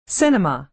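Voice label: "cinema" American English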